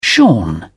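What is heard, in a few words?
"shorn", British English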